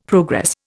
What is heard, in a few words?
"progress", Indian; Dictionary